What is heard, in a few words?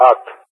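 "at", Speech, English; DCS; Allied Flight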